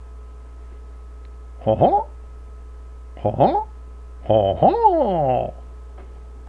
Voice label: french confirmations